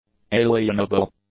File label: "alienable", English; adjectives